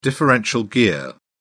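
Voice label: "differential gear", British English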